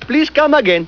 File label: please come again, taunts; prophunt